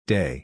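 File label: "day", English; déi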